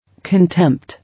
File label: "Contempt", English